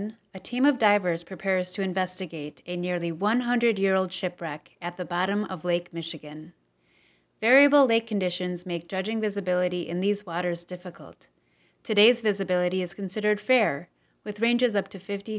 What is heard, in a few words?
Narrator Submission; Shipwrecks